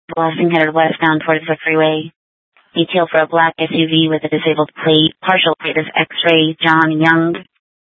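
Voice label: Police Department North Dispatch, scanner; Regional Wireless Cooperative (RWC) - Simulcast H- Scottsdale; Scottsdale PD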